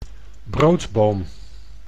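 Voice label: "broodboom", Dutch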